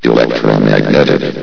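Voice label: "electromagnetic", male synthesized voice; vox; source engine; hl1; video games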